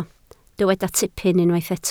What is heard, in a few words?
"tipyn", Welsh